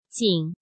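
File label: "Jǐng", Chinese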